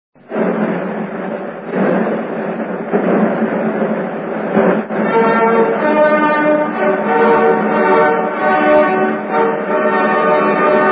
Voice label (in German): ansage; ve301dyn